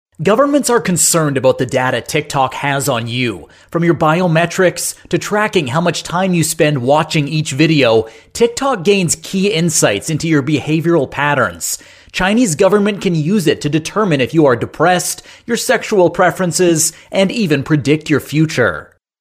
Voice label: 美式男声

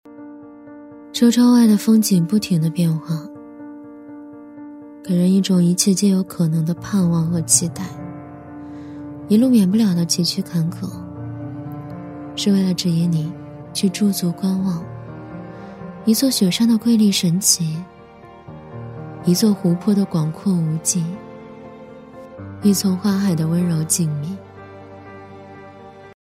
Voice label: 女-景区解说-知性走心; 雪山; 女B15-景区解说【雪山】-知性走心

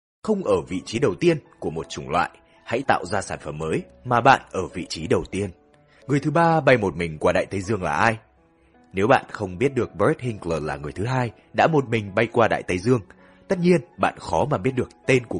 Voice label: 越南语男声

